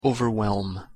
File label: "overwhelm", word